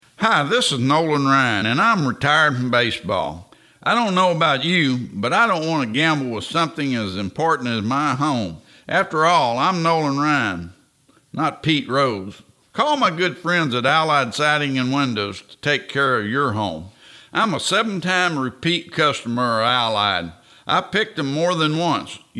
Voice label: Radio Spot; Houston